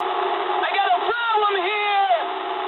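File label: aircraft damaged mood high, speech English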